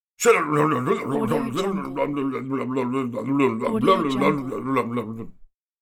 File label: cartoon man mumbling; moonaudioproduction